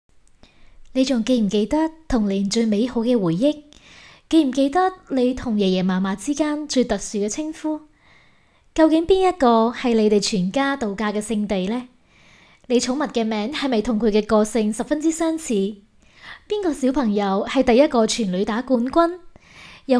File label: Cantonese